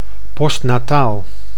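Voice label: "postaal", Dutch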